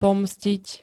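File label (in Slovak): "pomstiť", Slovak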